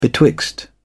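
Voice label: "betwixt", British English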